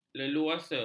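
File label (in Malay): "leluasa", Malay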